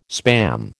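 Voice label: "Spam", American English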